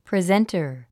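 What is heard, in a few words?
"presenter", English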